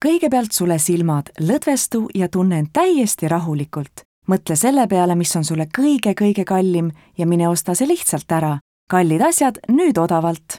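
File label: Estonia, female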